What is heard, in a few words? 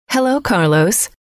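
hello, female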